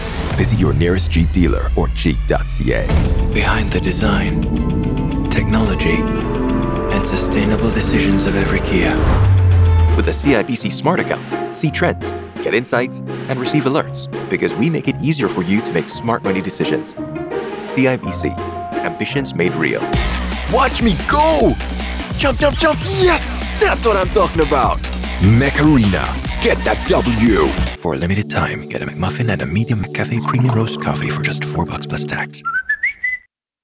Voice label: North American Generic